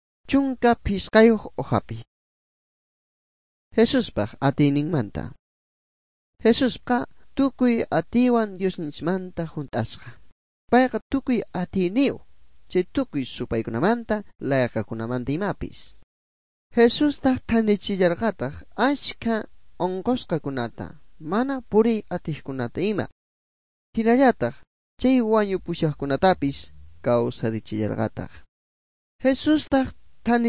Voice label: good news quechua bolivian sucre; bible